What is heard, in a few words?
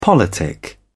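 "politic", British English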